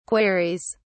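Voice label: "queries", British English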